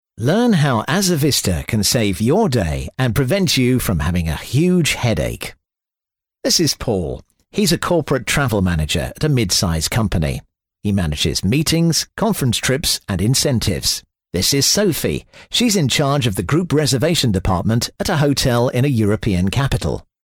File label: e-learning